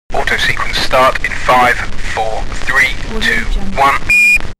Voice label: science fiction vocals astronaut chatter countdown